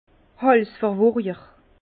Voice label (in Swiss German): "halsverwurjer", Bas Rhin; vin